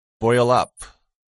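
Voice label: "boil-up", English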